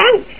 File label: Kid saying "Ouch"